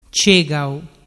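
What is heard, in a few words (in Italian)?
"cegau", dialect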